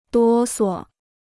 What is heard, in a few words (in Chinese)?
"哆嗦", Chinese